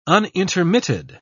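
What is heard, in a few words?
"unintermitted", British English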